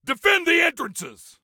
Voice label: Defend Entrances, Soldier Male; Soldier Male 03